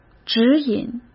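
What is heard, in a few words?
"指引", Mandarin Chinese; chinese flashcards; hsk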